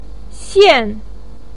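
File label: "xian", Mandarin pinyin, fourth tone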